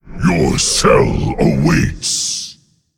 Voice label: Male Jailer Attack

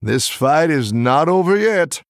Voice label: Male Human Behind

